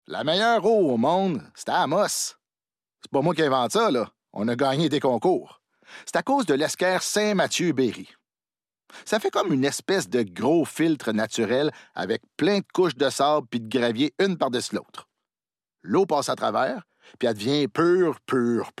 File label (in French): Autobus Narration Homme De La Ville